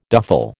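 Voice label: "duffle", American English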